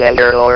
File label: "EMPEROR", votrax; VIDEO GAMES